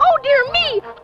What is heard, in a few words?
"oh dear me" girl; Voice; expletives